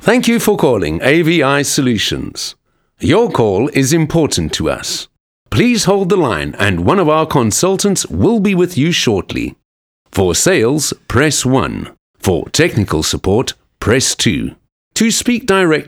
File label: IVR